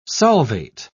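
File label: "solvate", English, British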